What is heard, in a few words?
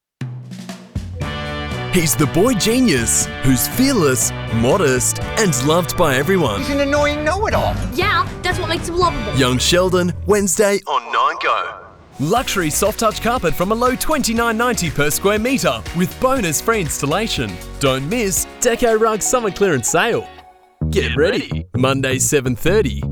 Promo